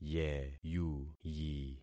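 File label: "yeyuyi", concatenated syllables; Affixing experiment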